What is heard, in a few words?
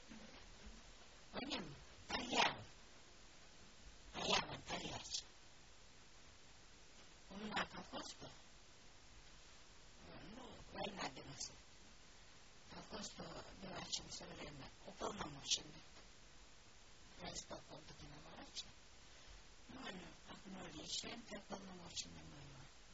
negidal